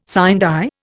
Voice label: "sine die", Indian